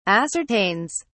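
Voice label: "ascertains", British English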